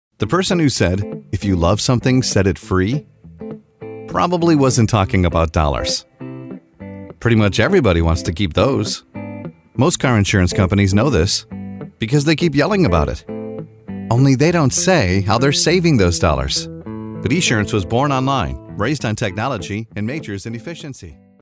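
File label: English US, male, explainer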